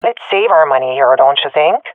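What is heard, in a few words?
request eco, swat female; vo; agents; Source Model Packs; Counter Strike Model Pack; CS2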